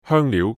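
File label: "香料", Cantonese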